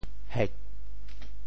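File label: "hek4", Teochew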